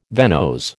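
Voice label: "venose", American English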